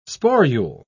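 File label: "sporule", British English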